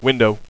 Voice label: "window", radio bot; cstrike